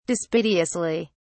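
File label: "dispiteously", English, British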